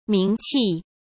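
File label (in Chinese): "名气", Mandarin Chinese; hsk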